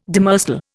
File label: "demersal", Indian